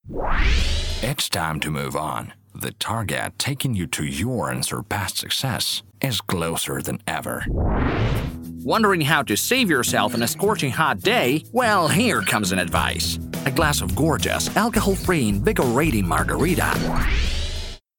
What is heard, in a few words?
English USA